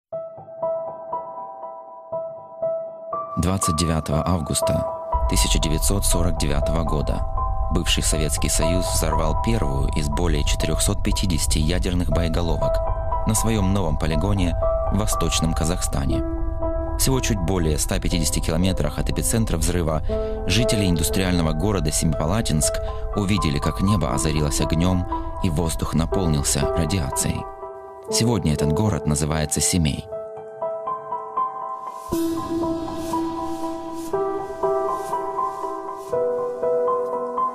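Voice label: Documentary, Russian; GoLocalise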